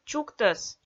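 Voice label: "чуктӧс", Komi, female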